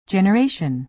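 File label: "generation" American English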